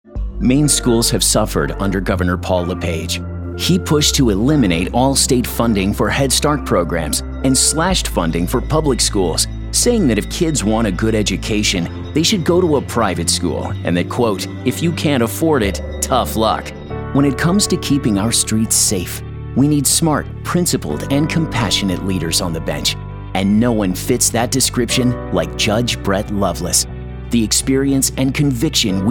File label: political men male